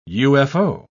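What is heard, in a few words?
"ufo", English word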